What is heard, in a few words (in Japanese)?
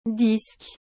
"disque", フランス語; 男性名詞